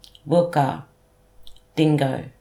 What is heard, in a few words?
"wilkar" dingo